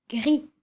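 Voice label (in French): "gris", French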